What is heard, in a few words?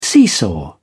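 "seesaw", British English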